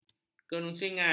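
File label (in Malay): "kerunsingan", Malay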